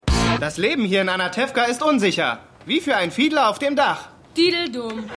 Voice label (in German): Junge; Eddie Kind; Keine Gnade Fuer Dad